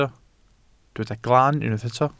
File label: "glan", Welsh